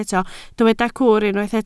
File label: "cwr", Welsh